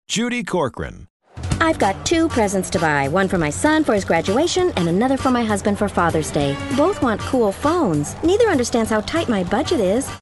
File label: ENERGIZED, Excited